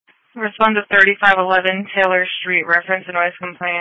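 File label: Police Patrol Dispatch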